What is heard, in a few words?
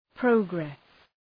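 "progress", English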